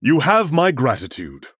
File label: Male Human THANKS; Arthas